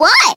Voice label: what, cartoon; questions